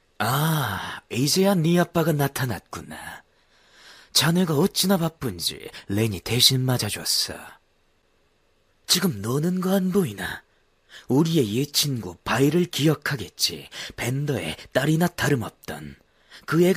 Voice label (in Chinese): 韩语男声